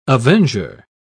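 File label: "avenger", English, British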